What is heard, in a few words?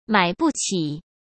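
"买不起", Mandarin Chinese; chinese-flashcards; hsk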